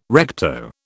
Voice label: "recto", British